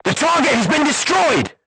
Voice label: voice message target destroyed, crew tanks portuguese